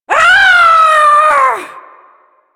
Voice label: Ability Long Cast, Barks; Warframe; Corpus Lich; Persona D

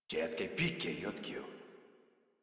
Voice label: Patrol Modular Corpus Male; Dialog; Barks; Battle; Warframe

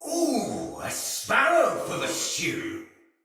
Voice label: See Player Shout, Battle; Thawtide Hunter; en

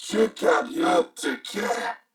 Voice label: Patrol, Corpus Venus Male; Warframe; CorpusVenusMaleA

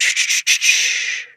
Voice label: Horse Calm Adult; Duviri

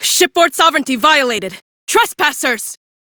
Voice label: Crew Enemy Spot, female; Warframe; Railjack; Cephalon Suda

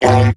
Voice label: Pain, Robot Large; Warframe; Eximus Corpus Robotic Large; Battle Barks

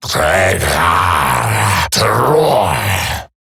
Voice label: Attack Eximus Grineer Male; Battle Barks

